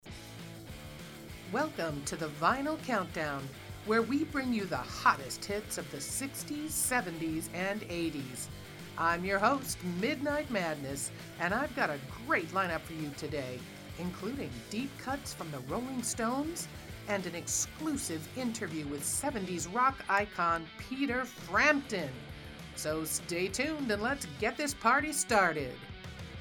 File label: Radio Show Opener